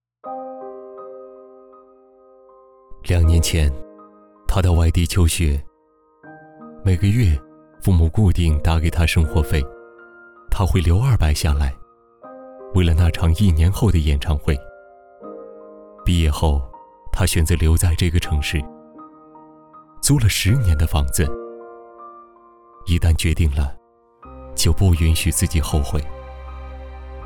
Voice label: 其他 旁白 平淡旁白, 男

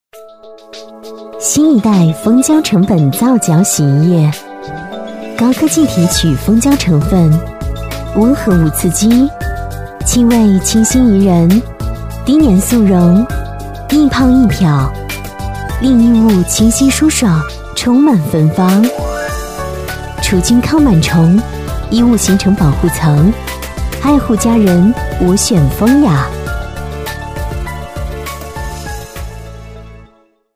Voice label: 优雅; 家居家电; 蜂雅洗衣

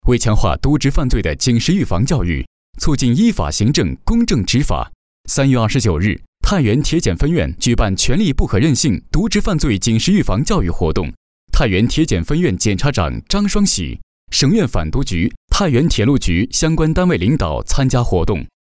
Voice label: 专题, 新闻, 警示教育新闻

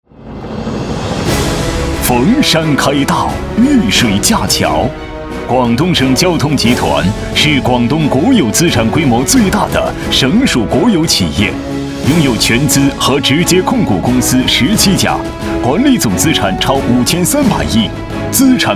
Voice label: 男国 专题 企业 大气; 利通集团

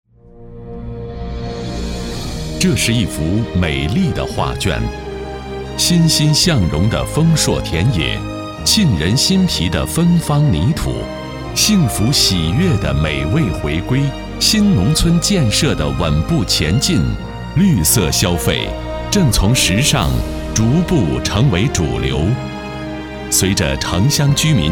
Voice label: 宣传片 城市 亲切, 男国; 达州

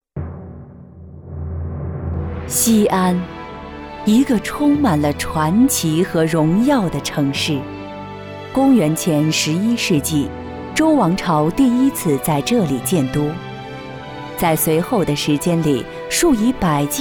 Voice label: 女国 纪录片 人文历史; 大明宫

